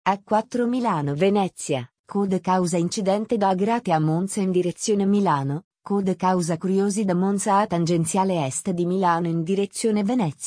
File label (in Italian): speech voce sintetizzata